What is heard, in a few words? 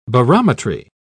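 "barometry", British English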